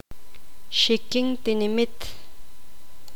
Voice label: poqomam; aldea

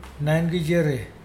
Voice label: "bear child", Mokilese

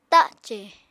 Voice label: "tacè", Apatani

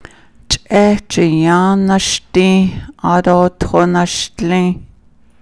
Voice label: I eat watermelon then I drink water, Navajo

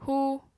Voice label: "hú", Apatani

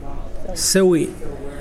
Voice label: "firewood", Mokilese

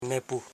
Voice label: "ne pu", house parts Koro

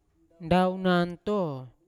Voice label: "ndaoganto" to carry, Yawa

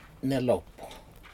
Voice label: fish back fin, Aneityum

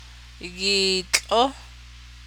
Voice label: weave it 1st person dual, navajo

